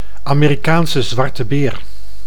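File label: "Amerikaanse zwarte beer", Dutch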